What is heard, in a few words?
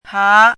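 "há", Chinese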